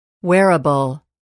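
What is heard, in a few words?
"wearabke", English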